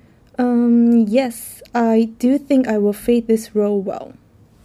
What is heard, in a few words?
female filler; interviewee